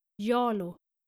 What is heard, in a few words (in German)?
"jalo", nordische jungennamen